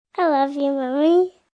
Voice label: I Love You Mommy, Endearment, 1 girl, 3 yrs; Talking Sound Effects; Sound Effects:Voice; VoiceBox